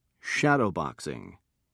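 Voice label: "shadowboxing", British English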